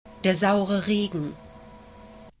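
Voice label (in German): "der saure regen", German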